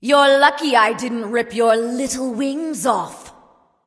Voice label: taunt directed; jingwei; smite; nike

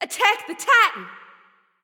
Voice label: vox attack; smite; nox; voicepack

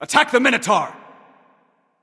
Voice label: vox attack m; smite; xbalanque

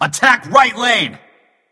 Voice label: vox attack; smite; ra; voicepack

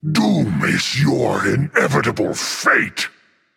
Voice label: taunt, voice; smite; voicepack; tyr

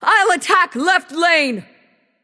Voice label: vox self attack; smite; arachne

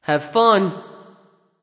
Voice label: vox emote; smite; skadi

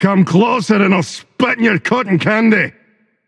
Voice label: taunt, voice; smite; tyr

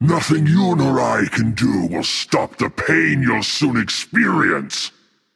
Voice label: taunt, voice; smite; voicepack; tyr

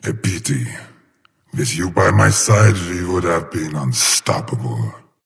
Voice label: taunt directed; smite; nox

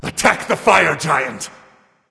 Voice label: vox attack f; smite; anubis

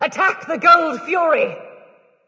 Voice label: vox attack; smite; izanami

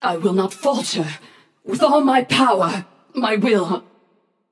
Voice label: health low passion; chaac

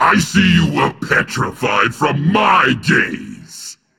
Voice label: taunt directed; smite; hades; medusa

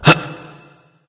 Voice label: surprised; smite; rama

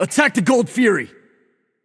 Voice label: vox attack; smite; mercury; voicepack

